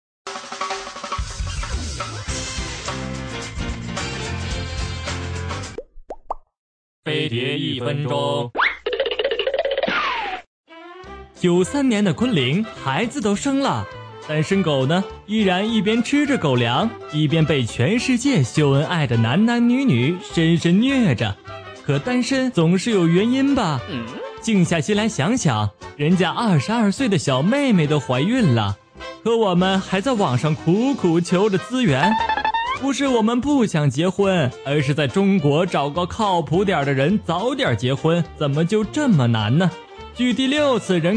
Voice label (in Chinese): 男 MG动画; 当前时代单身问题（飞碟）